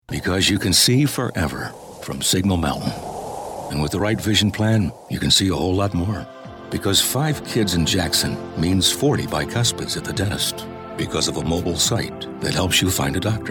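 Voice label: narration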